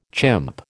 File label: "chimp", American